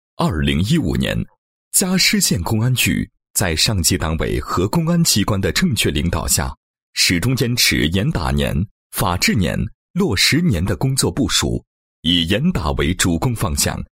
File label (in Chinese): 男 工作总结, 配音; 公安局; 某县公安局2015年度公安工作总结